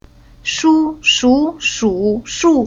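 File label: "Shu", chinese syllable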